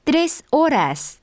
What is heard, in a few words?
spanish 9 hour